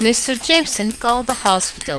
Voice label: noisy speech; soundstream